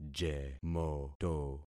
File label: "jemoto", concat syllables; Affixing experiment